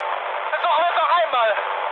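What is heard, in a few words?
German bomb failure mood high, speech; War Thunder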